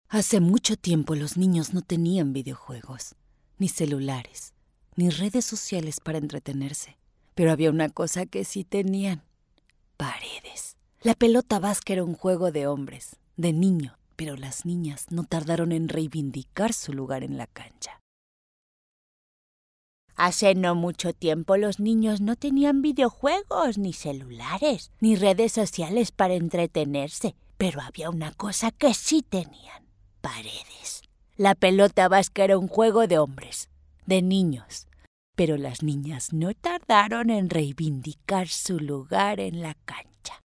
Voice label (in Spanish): locutora mexico locutora anciana